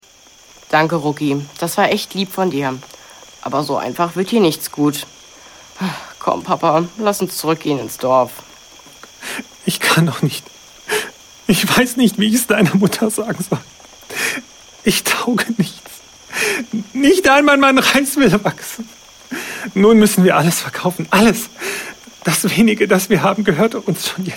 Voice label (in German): Hoerspiel